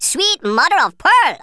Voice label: "brilliant", Rasta